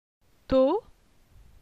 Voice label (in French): "tot", francais; phonetique; les voyelles